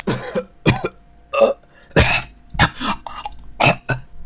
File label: choke, marine